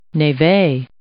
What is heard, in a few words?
"névé", English